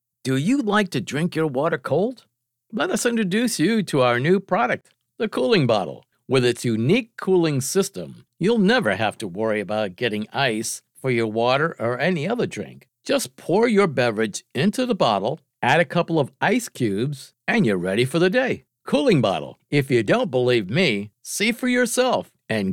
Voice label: commercials cooling bottle